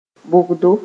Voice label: "bogdo", Mooré; holes